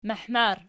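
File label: "mahmar" nominative, Archi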